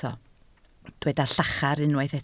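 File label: "llachar" Welsh, spoken word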